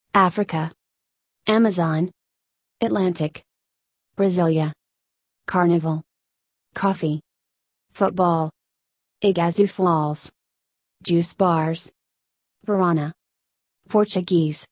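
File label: American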